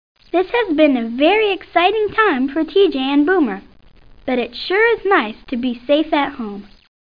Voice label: Narrator; Scene 15

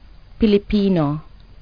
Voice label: PILIPINO; Webster's International Encyclopedia 1998

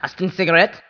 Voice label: german; Spec Ops 2

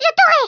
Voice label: illgetyou, French

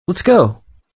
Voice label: male "let's go"; Survivor The Interactive Game; Player

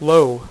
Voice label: "low", Dealer's Speech; PowerPOKER Demo

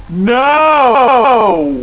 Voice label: echoey low no

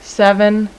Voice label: 5 female; numbers

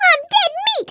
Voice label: "oh dear", American; Speech; International

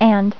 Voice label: "And", female voice; TalkingKeys

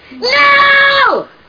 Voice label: aggressive no

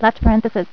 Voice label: "Left Parenthesis", Female; TalkingKeys

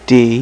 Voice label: "d" male, alphabet; Web Clip Empire 150,000

